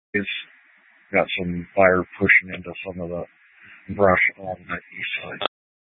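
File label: Incident Tac 2, Scanner audio recording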